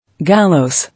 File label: "Gallows", English